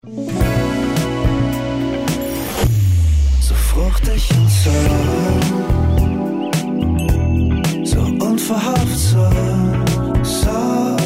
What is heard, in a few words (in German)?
Werbung Soft Cake; Griesson